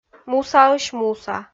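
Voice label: "мусаысь-муса", Komi, female; kpv audio dict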